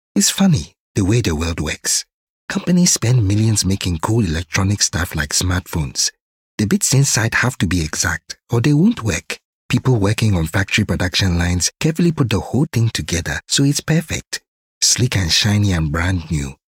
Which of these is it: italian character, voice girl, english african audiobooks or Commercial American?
english african audiobooks